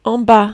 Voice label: "en bas", French

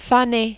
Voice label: "fane", French

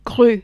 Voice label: "grue", French

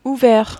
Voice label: "ouvert", French

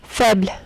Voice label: "faible", French

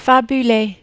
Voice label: "fabuler", French

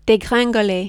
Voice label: "degringoler", French

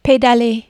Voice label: "pedaler", French

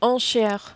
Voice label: "enchere", French

